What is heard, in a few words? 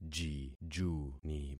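"jijuni", concatenated syllables; Affixing experiment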